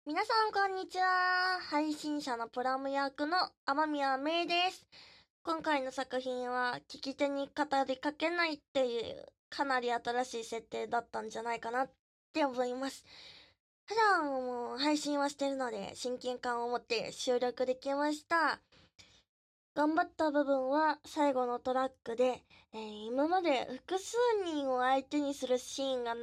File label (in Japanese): フリートーク; 人気急上昇!?清楚系の配信者だったのに気付いたらセックス配信までしちゃってました!